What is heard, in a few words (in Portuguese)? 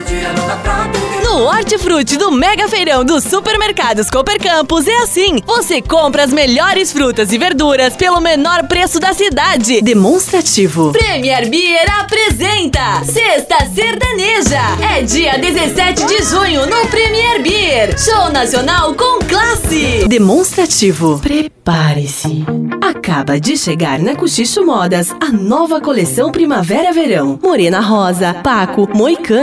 FEMININA